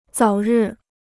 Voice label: "早日", Chinese